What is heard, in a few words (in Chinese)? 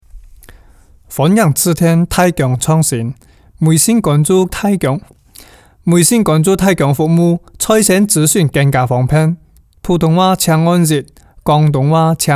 女 客家话 男